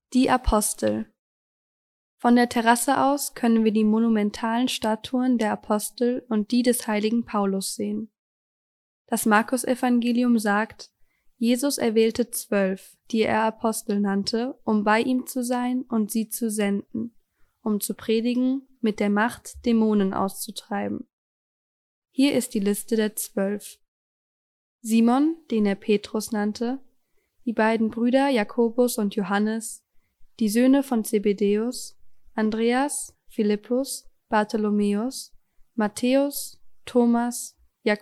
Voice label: Audio Aleman; TIBIDABO; audioguide deutscher

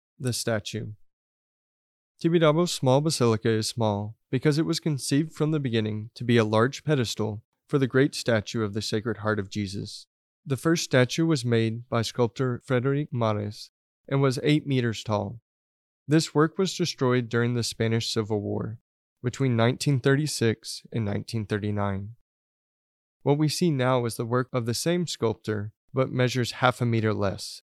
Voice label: Audio Ingles; TIBIDABO; english

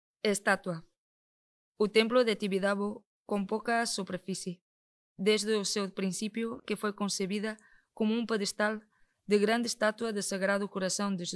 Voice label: Audio Portugues

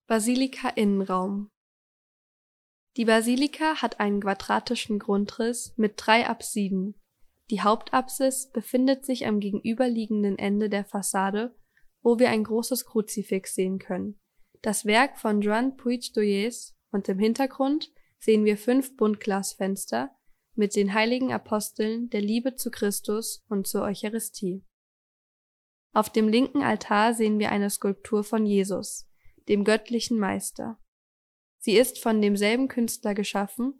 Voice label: Audio Aleman; TIBIDABO; audioguide deutscher

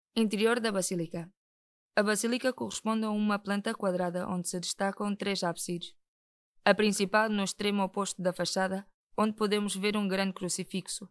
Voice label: Audio Portugues

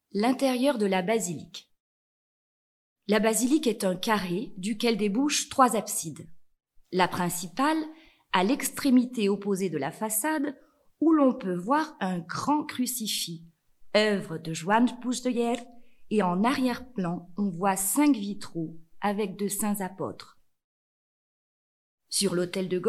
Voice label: Audio Frances; TIBIDABO; audioguides francais

